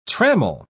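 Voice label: "trammel", British English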